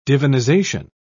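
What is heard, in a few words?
"divinization", British English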